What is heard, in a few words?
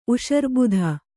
"ಉಷರ್ಬುಧ", Kannada